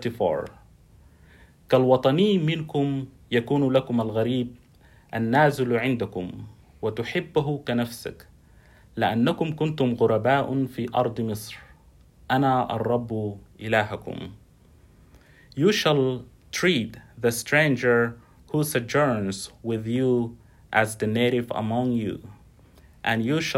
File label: Arabic lev